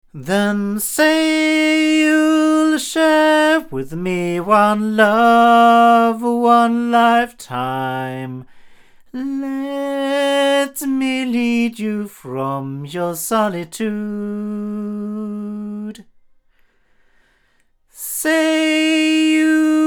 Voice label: CV-12 tube omni male; Avant